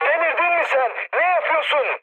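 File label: Turkish player killed ally, mood high, speech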